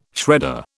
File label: "shredder", British